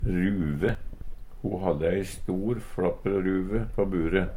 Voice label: ruve, Numedalsmål; Hushald, mat, drikke

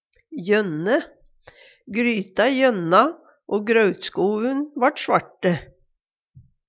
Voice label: jønne, Numedalsmål

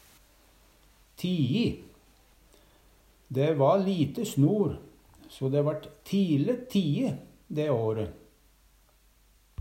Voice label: tii, Numedalsmål; Vêr og føre; Adjektiv; Dæ va lite snor den vinter'n so dæ vart ti'le tii.dæ åre.

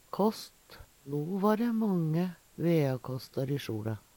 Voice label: kast, Numedalsmål

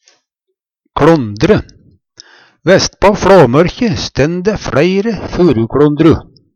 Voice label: kLondre, Numedalsmål; Vestpå FLåmørkje stænn dæ fleire furukLondru.

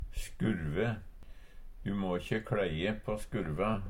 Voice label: "skurve", Numedalsmål; Substantiv hokjønn

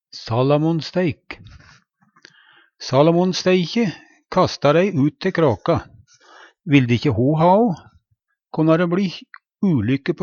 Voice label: "salamonsteik", Numedalsmål

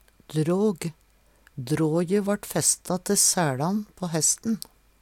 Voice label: "dråg", Numedalsmål; "drågslire er tverrtree mylljom dågarman, sjekan"

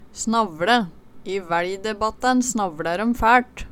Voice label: snavLe, Numedalsmål; I væLjdebbattæn snavLær øm fæLt

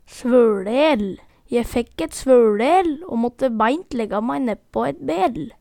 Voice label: svøLel, Numedalsmål

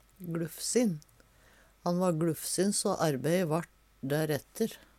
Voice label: gluffsin, Numedalsmål; Adjektiv

